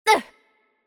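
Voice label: Hurt Light, Chinese; bloom minigame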